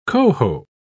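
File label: "cohoe", British English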